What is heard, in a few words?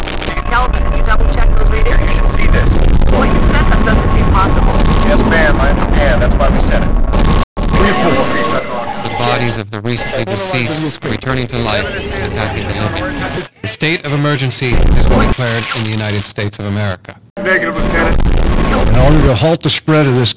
radio; umbrella facility